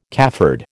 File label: "cafard", American